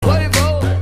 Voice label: Ad Lib

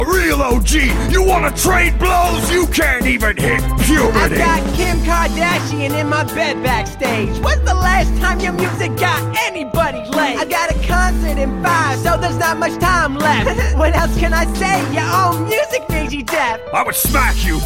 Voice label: Rap Battle

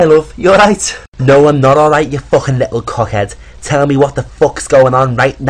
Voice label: Funny Scouse Couple

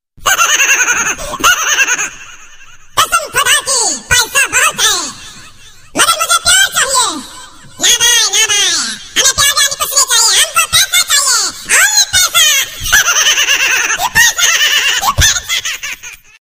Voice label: Funny Talk

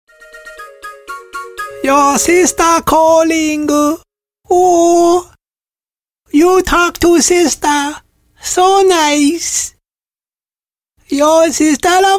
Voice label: Asian sister calling

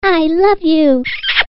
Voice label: I Love U Female

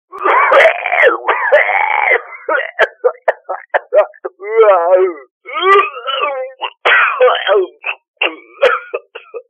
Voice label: Funny Bad Calling

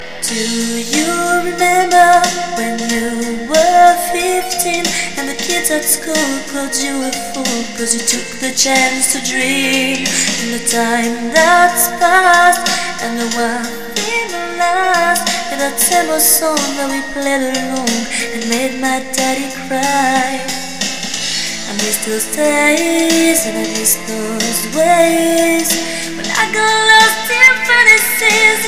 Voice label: New Nice Voice

